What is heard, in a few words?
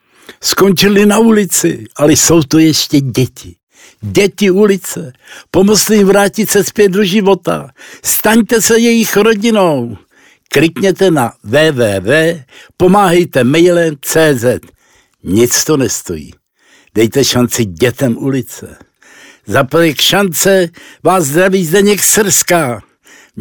radiospot